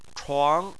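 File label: "chuang" second tone, Chinese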